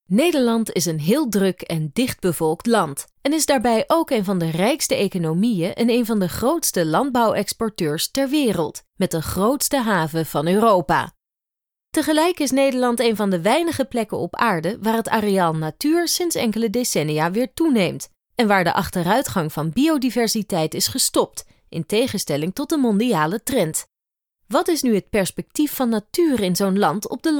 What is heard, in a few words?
Narrative